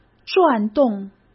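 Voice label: "转动", Mandarin Chinese; hsk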